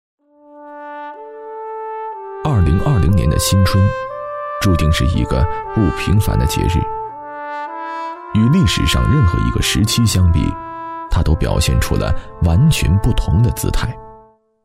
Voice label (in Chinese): 男 专题 稳重; 抗疫